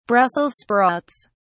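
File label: "brussels sprouts", English, British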